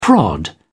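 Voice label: "prod", English, British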